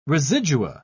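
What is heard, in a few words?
"residua", British English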